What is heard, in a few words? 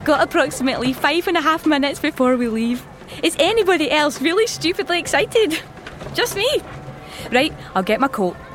Getting Ready - Scottish